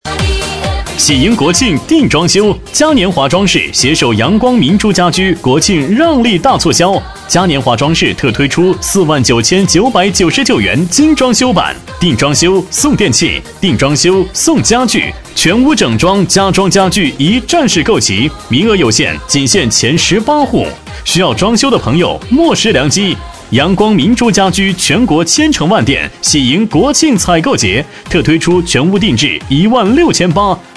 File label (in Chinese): 男声; 装修